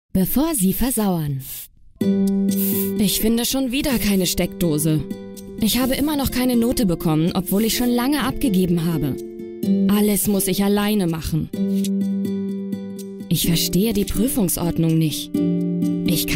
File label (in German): erklaerfilm